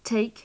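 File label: "take", British English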